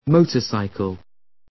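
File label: "motorcycle", American English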